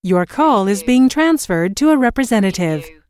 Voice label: US female phone prompt Your call is being transferred to a representative; lynne publishing